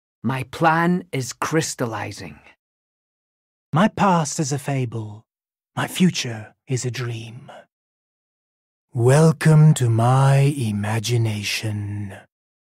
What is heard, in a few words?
Voice Overs